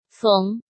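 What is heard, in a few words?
"Féng", Chinese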